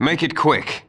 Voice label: Male; baldurs1